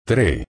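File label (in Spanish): "tre", Spanish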